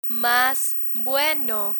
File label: "mas bueno", Spanish